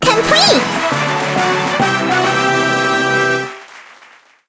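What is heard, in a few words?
guild raid complete, English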